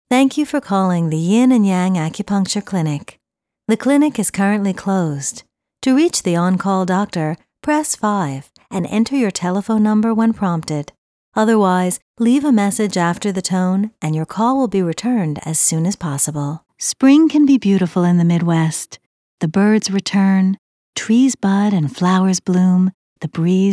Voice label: calming

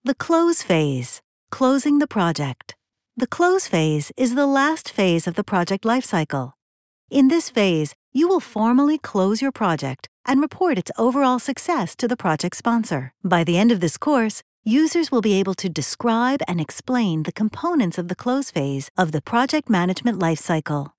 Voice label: US, e-learning; usac

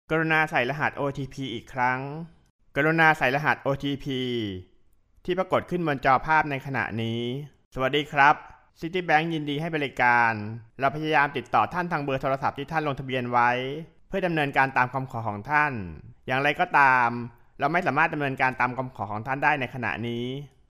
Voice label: Thai

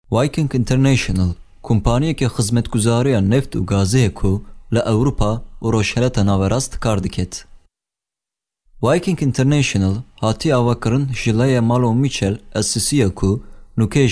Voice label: KURDISH KURMANJI

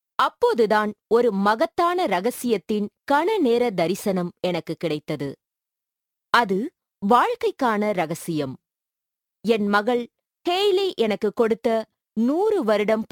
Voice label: Tamil audiobook

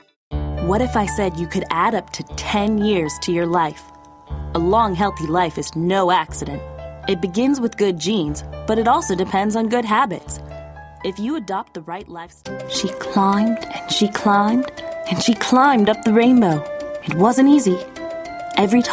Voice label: US, narration

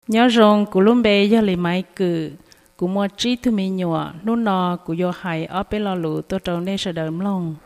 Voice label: Hmong

